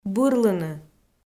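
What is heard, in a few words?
"бырлыны", Komi-Zyrian, female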